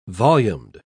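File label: "volumed", British English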